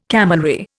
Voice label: "camelry", Indian